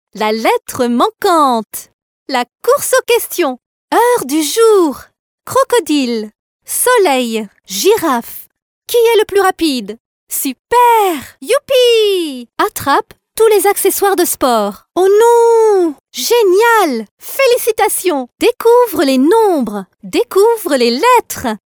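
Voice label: French female children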